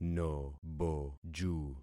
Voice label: "noboju", concat syllables; Affixing experiment